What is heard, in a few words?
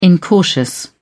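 "incautious", British English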